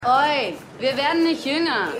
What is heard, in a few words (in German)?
Frau In Schlange; New Amsterdam